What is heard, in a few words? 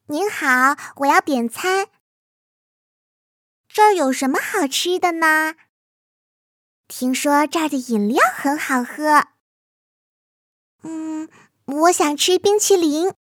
Acting